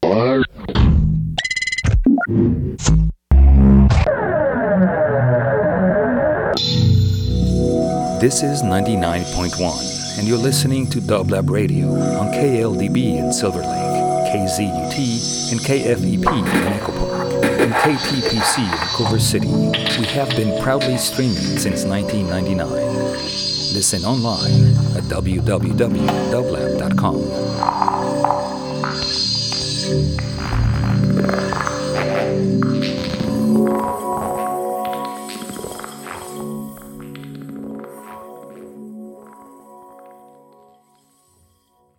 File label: fm drop